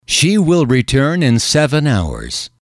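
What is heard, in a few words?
"she will return in seven hours", English, male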